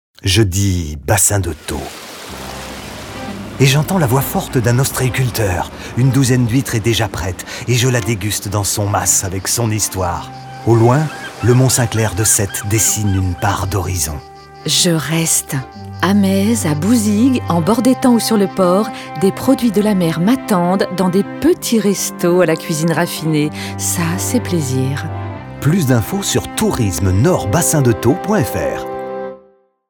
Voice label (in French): spot radio; bassin de thau; fb herault